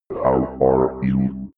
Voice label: robot voice how are you fx